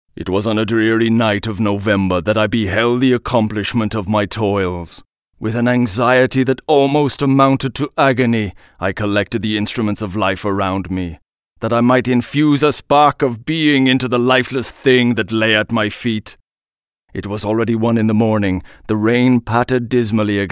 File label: english british audiobooks